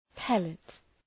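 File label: "pellet", English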